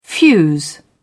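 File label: "fuse", British English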